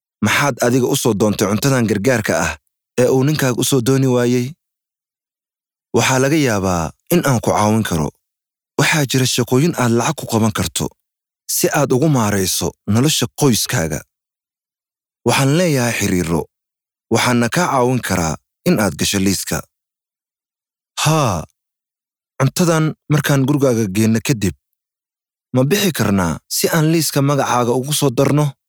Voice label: Somali M